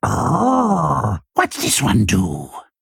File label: Male Kobold